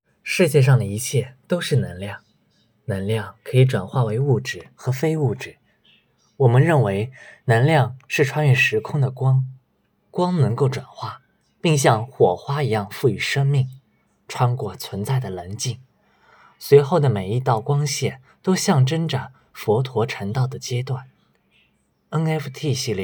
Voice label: 配音

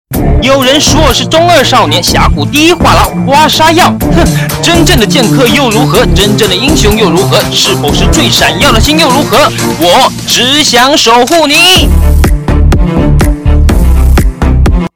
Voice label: 活泼音色

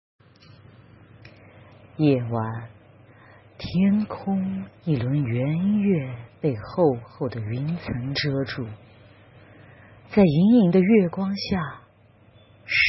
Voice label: 恐怖小说风格

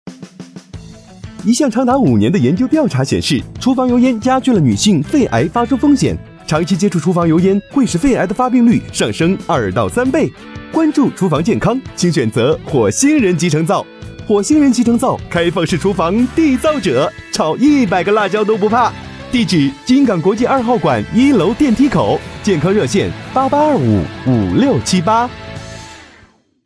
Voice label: 年轻 活力时尚

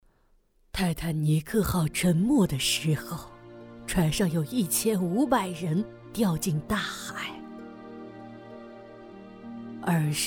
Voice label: 老年音

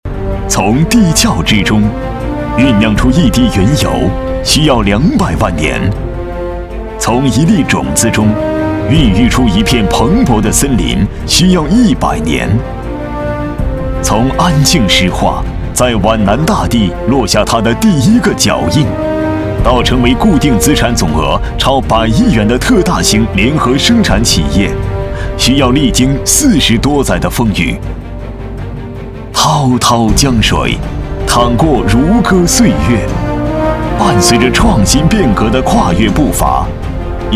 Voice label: 大气 浑厚; 安庆石化

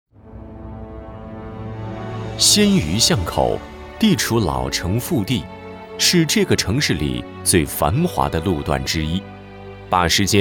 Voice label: 解说 历史讲述

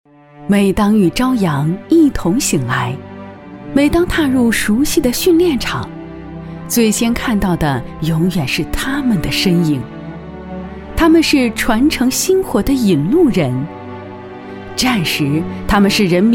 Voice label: 专题深情朗读

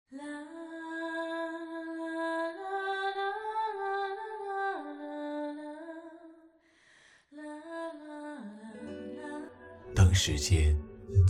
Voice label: 纪录片专题片; 古越龙山

